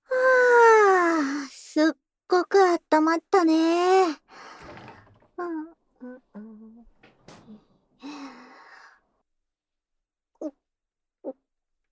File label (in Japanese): 右耳から耳かきするよー, ASMR